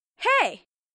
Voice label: bonjour, voix